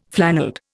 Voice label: "flannelled", Indian

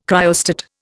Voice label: "cryostat", Indian

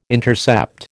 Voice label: "intercept", American

